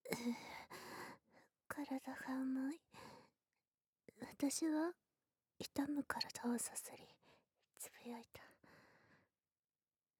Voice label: 妊娠に絶望する女の子。破水、出産へ ボイスのみ

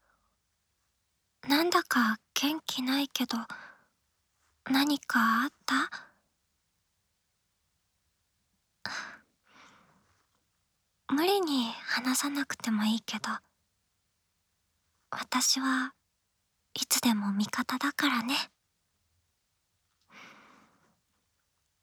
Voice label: おやすみボイス, ASMR; 冬聖のおやすみボイス３～私はキミの味方だよ～

